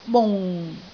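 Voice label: "mung4", Cantonese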